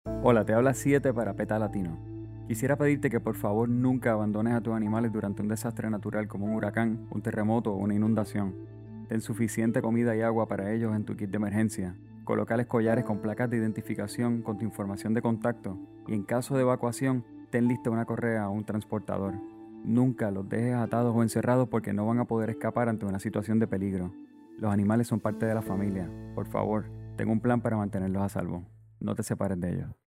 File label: radio psa; sie7e; natural disasters; peta latino